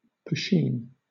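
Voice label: "poteen", British English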